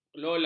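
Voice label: "kelola", Malay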